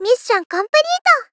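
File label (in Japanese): Moe Victory Mission complete, moe loli girl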